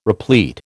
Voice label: "replete", American